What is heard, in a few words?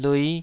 "lui5", Taiwanese syllable